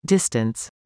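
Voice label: "Distance", English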